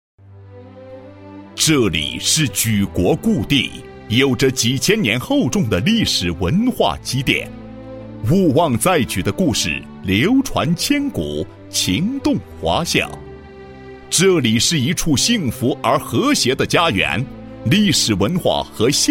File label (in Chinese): 男 汇报 社区建设工作纪实